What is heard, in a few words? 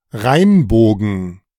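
"Rheinbogen", German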